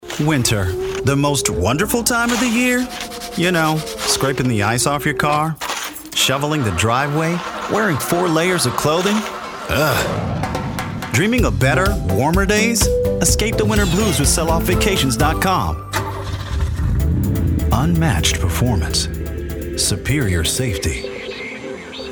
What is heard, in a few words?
VO Commercial